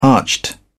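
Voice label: "arched", English, British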